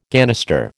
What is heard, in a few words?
"ganister", American